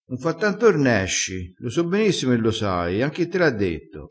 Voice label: "fa r nesci", vocabolario dialettale

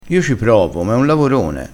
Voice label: "lavorone", crespinese

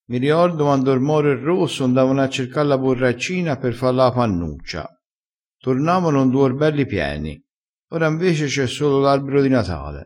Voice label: "capannuccia", crespinese

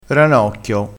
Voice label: "ranocchio", crespinese, vocabolario dialettale

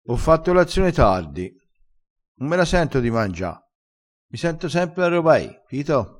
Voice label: "pito", vocabolario dialettale

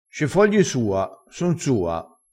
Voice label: "sua", crespinese

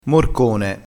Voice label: "morcone", crespinese

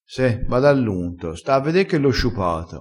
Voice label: "bada all unto", vocabolario dialettale

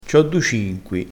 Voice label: "cinqui", crespinese

